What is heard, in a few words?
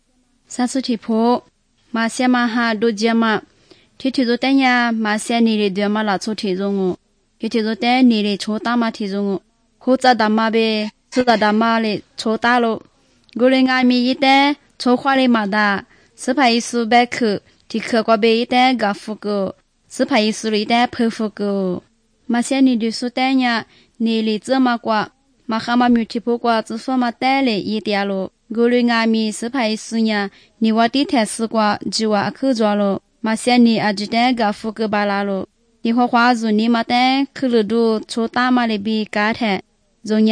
good news lisu southern, drama